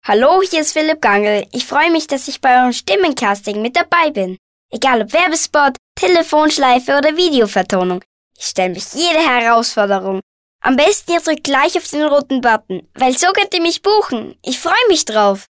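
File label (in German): Kinder Neutral, Kinderstimmen; kleine Profisprecher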